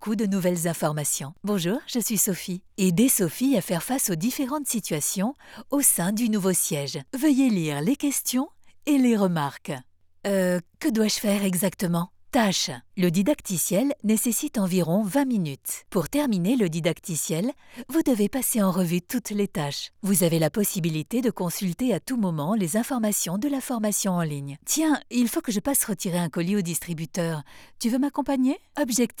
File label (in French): elearning comportement de sécurité